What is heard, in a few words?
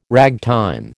"ragtime", American